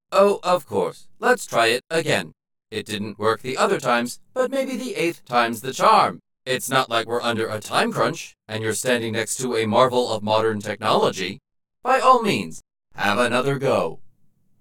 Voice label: Voice Robot